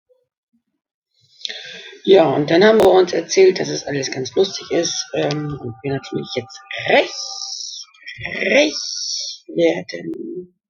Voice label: Record online voice recorder